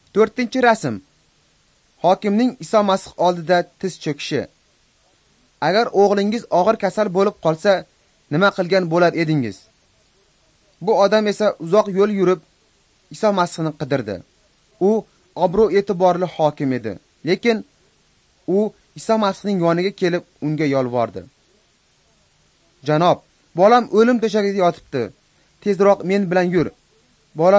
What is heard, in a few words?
uzbek northern